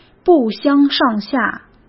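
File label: "不相上下", Mandarin Chinese; hsk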